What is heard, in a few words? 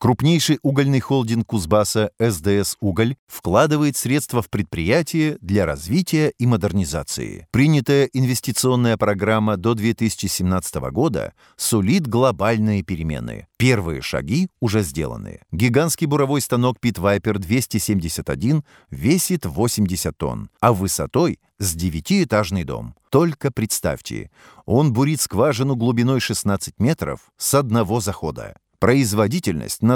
present rus